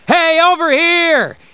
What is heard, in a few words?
"over here", barney; portal house